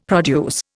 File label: "produce", Indian; Dictionary